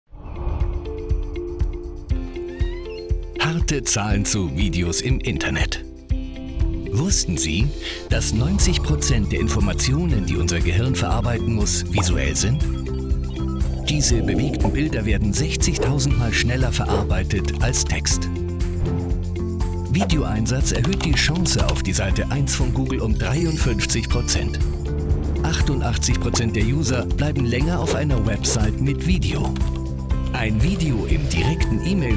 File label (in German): Erklaervideo; Capitofilm; Abseits